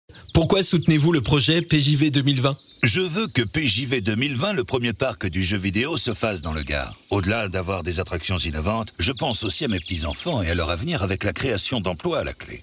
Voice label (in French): Spot dialogue homme